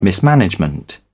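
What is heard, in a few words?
"mismanagement", British English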